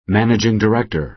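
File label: "managing director", American English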